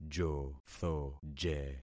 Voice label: "jothoje", concat syllables; Affixing experiment